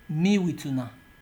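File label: "mewituna", Nigerian Pidgin, male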